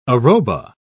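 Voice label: "arroba", British English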